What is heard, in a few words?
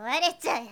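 "kowaretyae", girl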